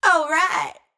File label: adlib "Alright" wet, Raw Vocals; Adlibs